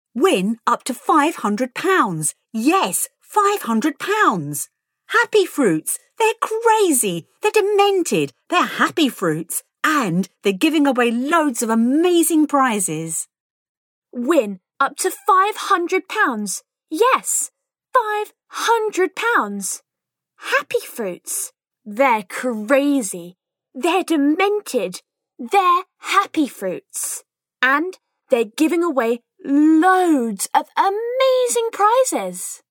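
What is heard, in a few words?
english british female; dictor; dictor-sounds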